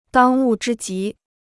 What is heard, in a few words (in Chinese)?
"当务之急", Chinese